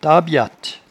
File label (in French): "tabiatte", patois jura